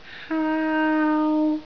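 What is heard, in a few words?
"haau1", Cantonese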